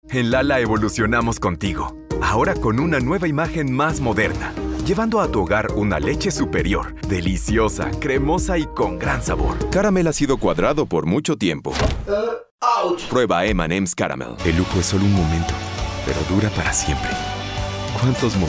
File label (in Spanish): DEMO Comercial